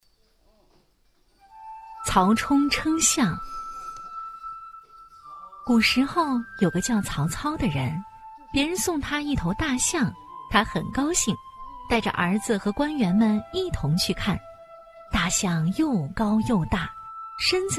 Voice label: 儿童读物, 曹冲称象

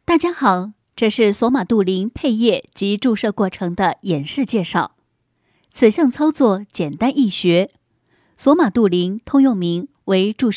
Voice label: 女, 解说, 配液及注射过程的演示介绍配音; 索马杜林

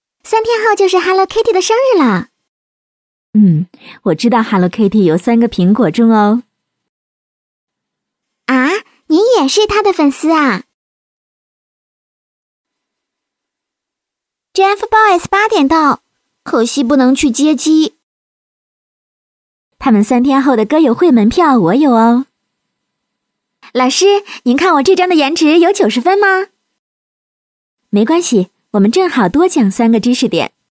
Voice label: 女 角色 多角色模仿, 国语女声配音

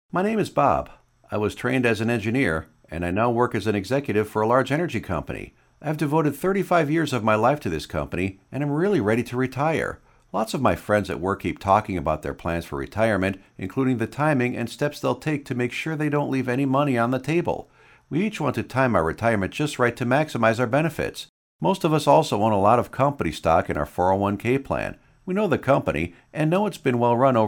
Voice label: 外籍英男 自述风格

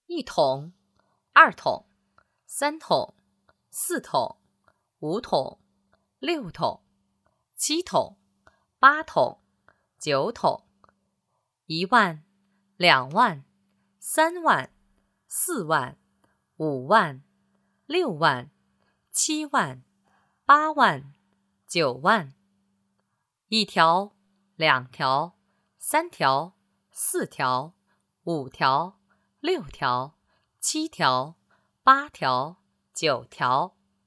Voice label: 女, 棋牌普通话

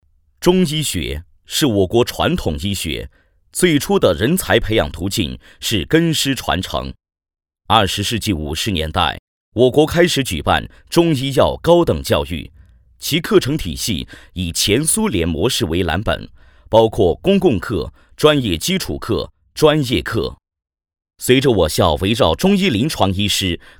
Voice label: 男 专题 中医学院; 贵州中医学院

